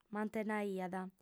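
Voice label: "mantennaiat", female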